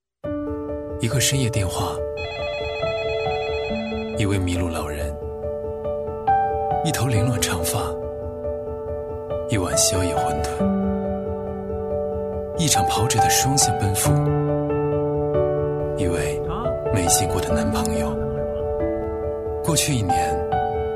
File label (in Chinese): 男 微电影旁白 伤感自然, 时尚质感 自然诉说; 一个旅人